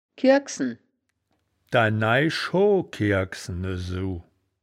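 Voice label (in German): "kirgksen", wittlicher woerterbuch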